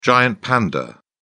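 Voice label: "giant panda", British English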